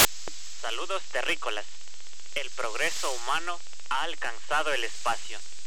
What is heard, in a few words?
male saludos